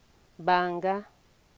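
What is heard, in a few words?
"banga", Nigerian Pidgin, female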